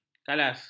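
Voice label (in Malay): "kalas", Malay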